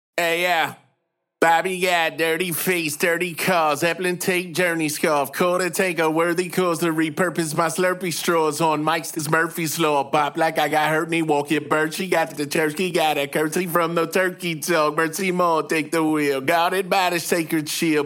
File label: vox dry; Trash